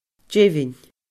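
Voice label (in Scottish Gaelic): "deimhinn", Scottish Gaelic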